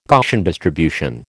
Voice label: "Gaussian distribution", American

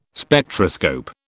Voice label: "Spectroscope", British

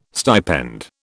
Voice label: "stipend", British

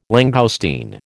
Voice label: "langoustine", American

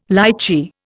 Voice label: "lichee", Indian

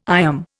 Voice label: "IOM", Indian

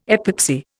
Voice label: "epoxy", Indian

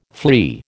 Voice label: "flea", American

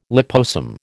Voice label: "liposome", American

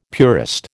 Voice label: "purist", American

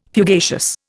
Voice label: "fugacious", Indian

